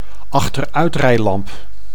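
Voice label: "achteruitrijlamp", Dutch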